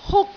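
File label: "huk1", Cantonese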